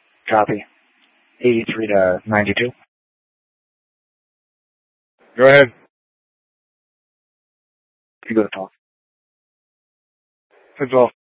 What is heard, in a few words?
Dispatch; Scottsdale